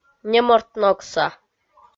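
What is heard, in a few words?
"немортногса", Komi, female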